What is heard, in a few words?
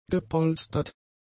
"gepolstert", German; adjectives